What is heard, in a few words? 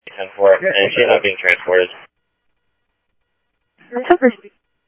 Phoenix PD, scanner audio